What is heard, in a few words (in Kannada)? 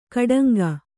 "ಗೂಢಾಂಗ", Kannada